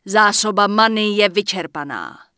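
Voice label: Human Female Out Of Mana, Czech; wowpreklad; czwow; HumanVocalFemale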